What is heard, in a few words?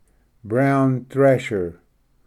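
"brown thrasher", English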